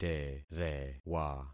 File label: "terewa", concat syllables; Affixing experiment